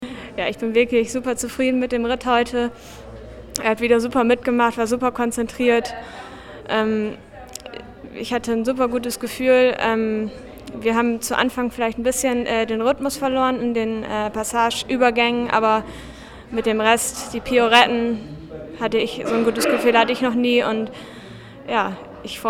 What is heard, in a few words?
german; Kristina Sprehe bronze WEG